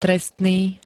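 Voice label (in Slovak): "trestný", Slovak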